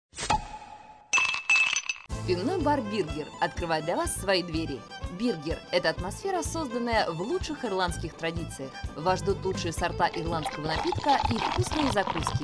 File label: IVR